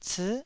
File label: "cii9", Taiwanese